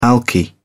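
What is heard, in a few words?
"alky", British English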